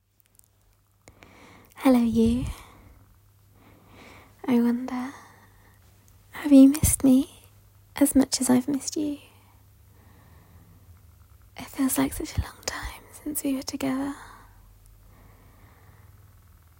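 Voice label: [F4M] I fell asleep waiting for you [British Accent], female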